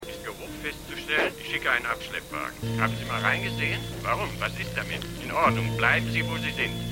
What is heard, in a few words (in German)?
Funk, Stimmproben